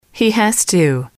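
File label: "he has to", English, female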